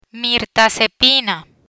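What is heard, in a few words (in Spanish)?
"Mirtazepina", Spanish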